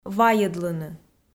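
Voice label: "вайӧдлыны", Komi, female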